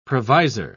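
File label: "provisor", British English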